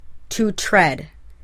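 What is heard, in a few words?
"to tread", English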